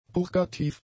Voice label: "purgativ", German; adjectives

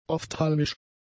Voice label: "ophthalmisch", German; adjectives

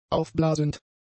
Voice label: "aufblasend", German; adjectives

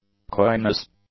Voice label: "coyness", English

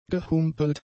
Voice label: "gehumpelt", German; adjectives

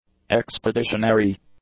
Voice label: "expeditionary", english; adjectives

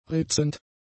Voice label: "ritzend", German; adjectives

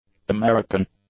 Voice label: "American", English; adjectives

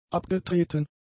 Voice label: "abgetreten", German; adjectives

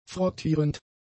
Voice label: "frottierend", German; adjectives

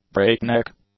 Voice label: "breakneck", English; adjectives

